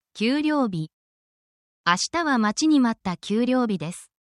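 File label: Japanese word, essential Japanese phrases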